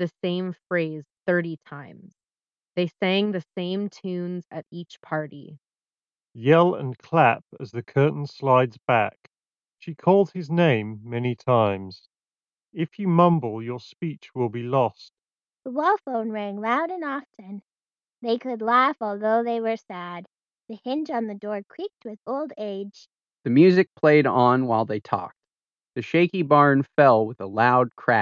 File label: Dongle Ideal microphone sample; Playstation Pulse Elite